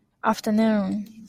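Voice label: "afternoon", Japanese speaker of English, female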